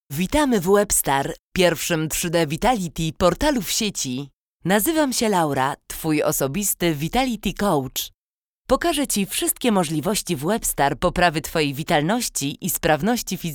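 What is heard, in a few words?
Polish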